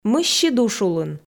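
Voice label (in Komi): мысси душ улын, Komi, female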